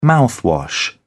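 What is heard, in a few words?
"mouthwash", British English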